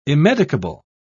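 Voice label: "immedicable", British English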